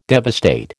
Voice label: "devastate", American